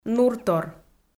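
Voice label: "нуртор", Komi, female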